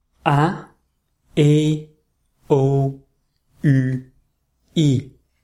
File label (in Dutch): spelling lang kort lange klanken